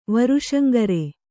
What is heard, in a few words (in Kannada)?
"ವರುಷಂಗರೆ", Kannada